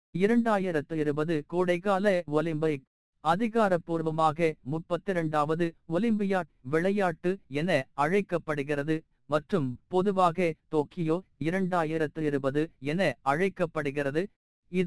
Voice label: Tamil, India; Valluvar